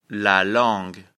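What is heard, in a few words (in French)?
"langue", French; afrikalan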